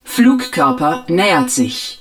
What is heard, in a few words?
INCOMING MISSILE, german